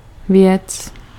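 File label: "věc", Czech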